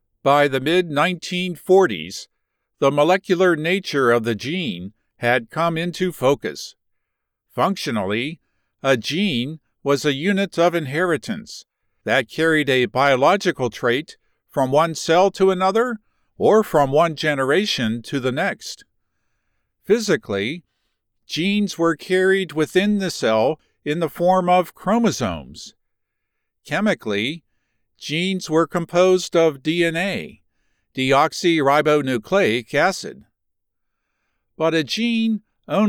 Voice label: Non Fict 3rd person science explanatory, audiobooks